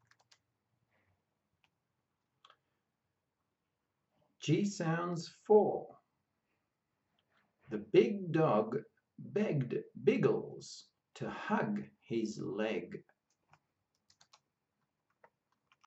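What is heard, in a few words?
G sounds, pronunciation of australian english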